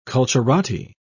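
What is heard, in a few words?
"culturati", British English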